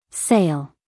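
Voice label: "sale", American English, female; everyone-can-use-english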